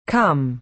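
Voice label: "come", American English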